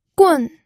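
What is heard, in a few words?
"gun", Mandarin Chinese, fourth tone; pinyin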